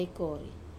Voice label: "kore", to take, Wamesa